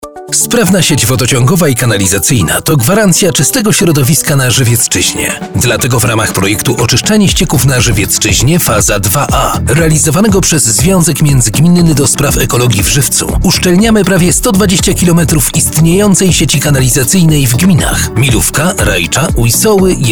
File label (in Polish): Spot radio; Zywiecczyzna siec kanalizacyjna